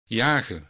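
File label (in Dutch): "jagen", Dutch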